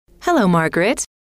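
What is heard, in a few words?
hello, female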